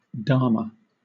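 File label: "dharma", British English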